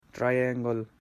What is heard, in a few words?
"triangle", en; geometric shapes